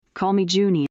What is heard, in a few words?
fem